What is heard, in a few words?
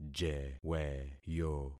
"jeweyo", concat syllables; Affixing experiment